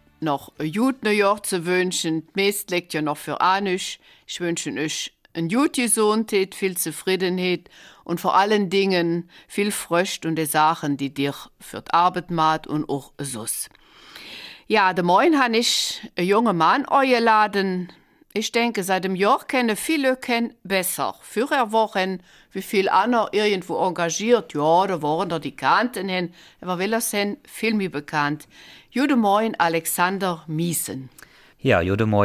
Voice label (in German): Eifeler Mundart; Jung in die Verantwortung